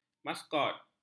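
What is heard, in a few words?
"maskot", Malay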